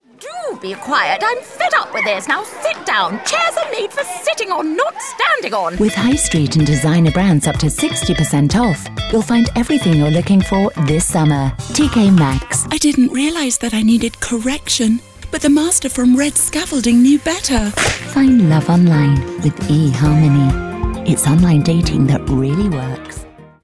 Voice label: English United Kingdom